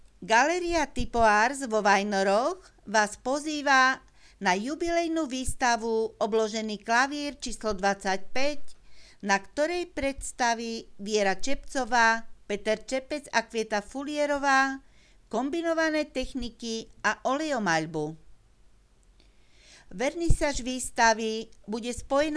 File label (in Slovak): Hlásenie miestneho rozhlasu; Obložený klavír č.25 - pozvánka